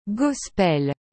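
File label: "gospel", French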